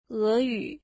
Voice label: Russian, mandarin